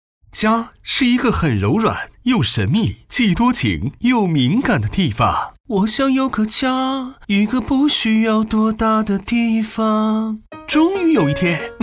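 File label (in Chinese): 男国大音-MG动画宣传片; E淘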